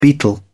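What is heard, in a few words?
"beetle", en, uk British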